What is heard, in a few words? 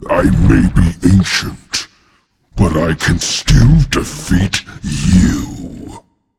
taunt, voice; smite; voicepack; tyr